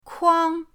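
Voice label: "kuang", Mandarin pinyin, first tone; pinyindct-open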